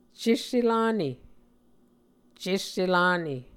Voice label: "cesse-lane", muscogee